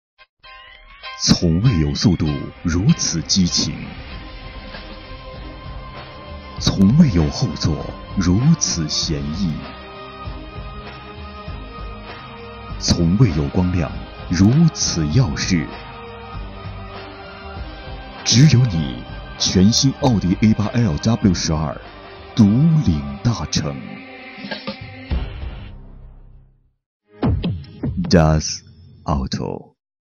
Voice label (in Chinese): 厚重; 奥迪